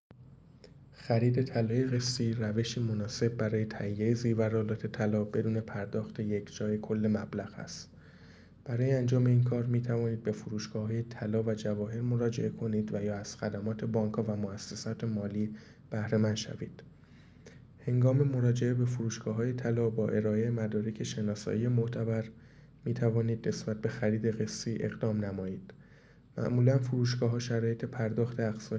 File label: voice msg